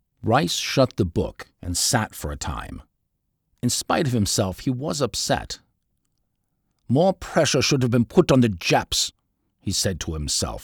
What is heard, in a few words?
German Accent